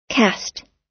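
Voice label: "cast", American English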